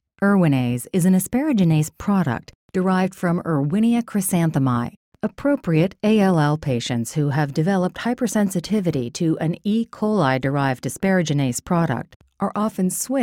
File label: Calm Knowledgeable